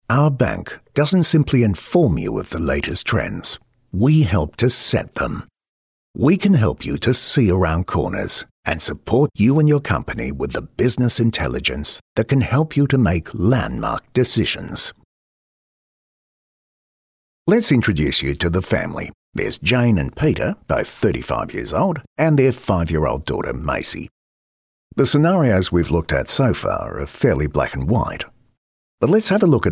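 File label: male narration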